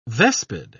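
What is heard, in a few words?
"vespid", British English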